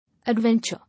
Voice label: "Adventure", English